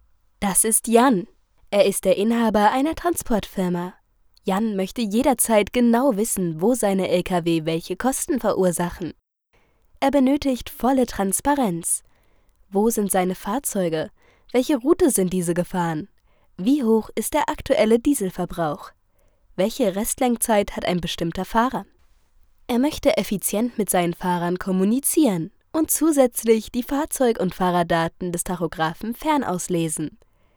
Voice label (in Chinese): 德语女声